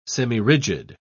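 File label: "semirigid", English, British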